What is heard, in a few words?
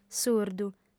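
"surdu", female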